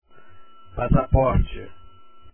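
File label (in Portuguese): "passaporte" Portuguese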